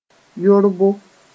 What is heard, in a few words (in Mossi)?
"yorbo", moore; good food